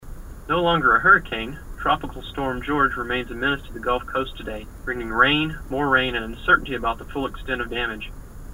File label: Narrowband; Xperia XZ; HFT mobile tests